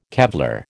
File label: "Kevlar", American